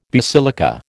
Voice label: "basilica", American

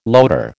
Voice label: "loader", American

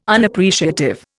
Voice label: "Unappreciative", Indian

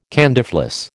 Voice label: "candyfloss", American

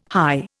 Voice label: "hie", Indian

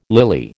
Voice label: "lily", American

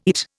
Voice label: "eat", Indian

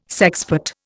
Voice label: "sexpot", Indian

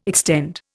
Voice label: "extend", Indian

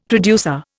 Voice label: "producer", Indian; Dictionary

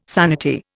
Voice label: "sanity", Indian

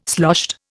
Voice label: "sloshed", Indian

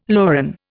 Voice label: "loran", Indian

